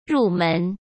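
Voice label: "入门", Mandarin Chinese; chinese flashcards; hsk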